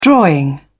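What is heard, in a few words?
"drawing", British English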